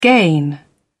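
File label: "gain", British English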